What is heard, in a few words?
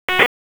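Raleigh Police Southeast District Dispatch, p25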